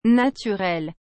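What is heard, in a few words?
"naturel", French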